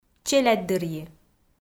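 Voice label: "челядьдырйи", Komi, female; kpv audio dict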